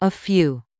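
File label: "a few", English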